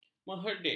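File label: "mengherdik", Malay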